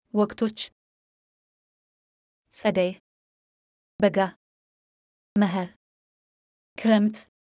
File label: amharic seasons